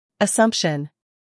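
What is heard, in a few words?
"scandal", American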